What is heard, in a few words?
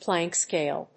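"Planck scale", English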